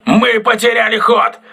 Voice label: voice message lost propulsion, russian